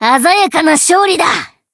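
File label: Voice win, Japanese; morgana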